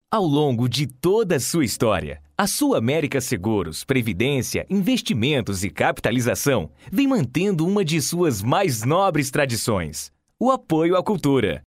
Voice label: Brazilian Portuguese Male Voice Sample